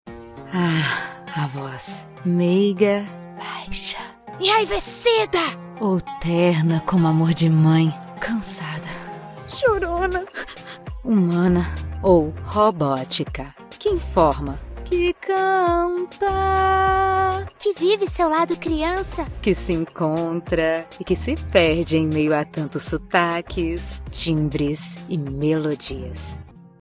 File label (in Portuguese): LOCUÇÃO NATURAL E INTERPRETATIVA